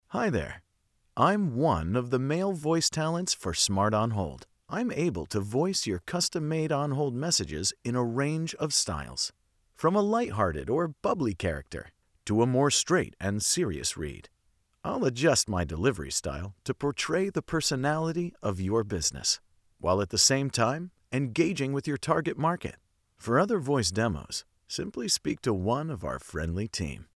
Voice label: male; Voice Over artists; Smart On Hold Messages